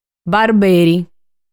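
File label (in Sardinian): "Barberi", Sardinian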